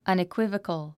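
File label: "unequivocal", English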